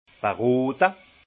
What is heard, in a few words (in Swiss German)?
"berote", alsace; vin; conseiller